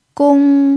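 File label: "gong1", Chinese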